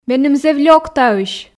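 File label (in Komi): меным зэв лёк таысь, Komi, female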